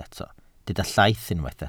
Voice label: "llaith", Welsh